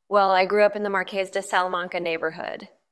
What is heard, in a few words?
VoiceActing es F